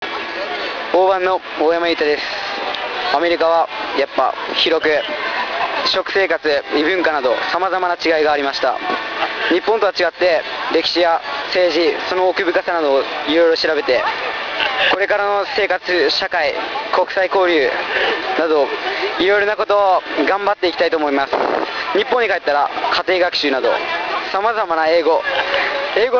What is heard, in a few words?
Student Voice; Auburn